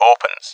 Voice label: "opens", speech; RallyC

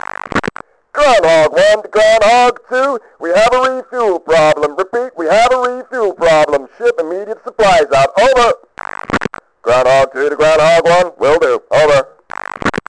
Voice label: chat

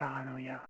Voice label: Whisp; Revista CD Expert 50; PCGamer50

